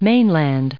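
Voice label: "mainland", US English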